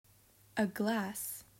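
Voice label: "A glass", English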